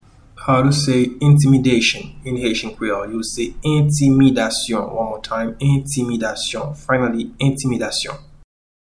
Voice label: Intimidation in Haitian Creole Entimidasyon